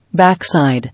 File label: "Back side", English